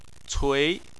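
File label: "cui" second tone, Chinese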